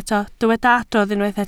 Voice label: "adrodd", Welsh

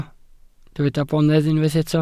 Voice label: "bonedd", welsh

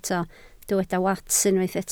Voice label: "wats", Welsh

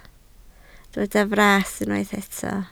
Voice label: "fras", Welsh

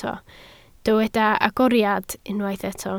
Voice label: "agoriad", Welsh

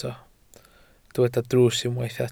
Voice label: "drws", Welsh

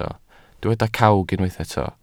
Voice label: "cawg", Welsh

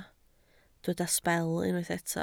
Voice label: "sbel", Welsh

